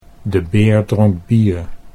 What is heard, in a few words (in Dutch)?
"de beer dronk bier", Dutch; The bear drank beer.